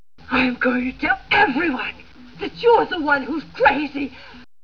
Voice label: zombie